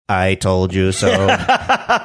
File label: saying i told you so robotic manner